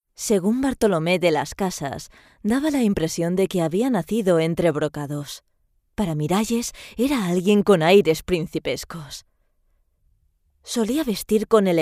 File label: locutora spanish voiceover